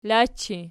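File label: "lachi" nominative, Archi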